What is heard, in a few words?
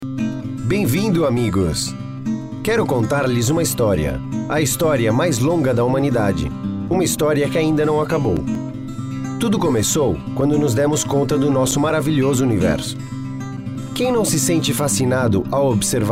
male brazilian comercial; ideasonora